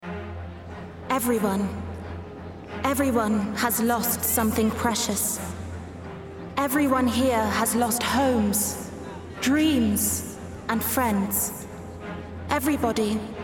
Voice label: Animation; Final Fantasy